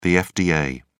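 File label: "fda", British English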